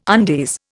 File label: "undies", Indian